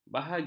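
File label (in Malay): "bahagia", Malay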